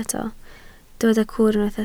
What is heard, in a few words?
"cwr", Welsh